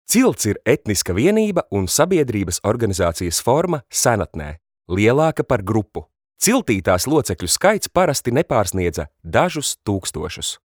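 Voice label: Latvian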